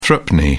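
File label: "threepenny", British English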